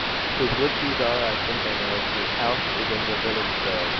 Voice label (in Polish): glos szum szerokopasmowy